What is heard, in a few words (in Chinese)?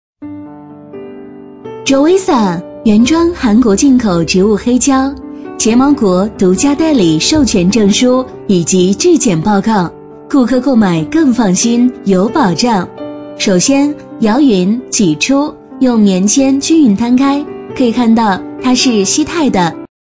女 解说 睫毛膏介绍; 淘宝睫毛膏介绍